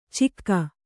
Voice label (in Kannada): "ಚಿಕ್ಕ", Kannada